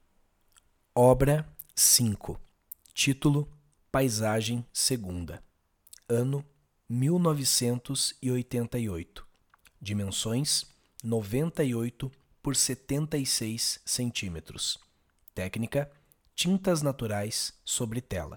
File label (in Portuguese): audiodescricao; Galeria Virtual